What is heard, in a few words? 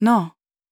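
question short, voice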